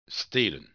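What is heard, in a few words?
"stelen", Dutch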